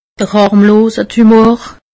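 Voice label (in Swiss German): "harmlose tumor", Alsatian; medecine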